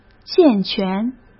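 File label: "健全", Mandarin Chinese; chinese flashcards; hsk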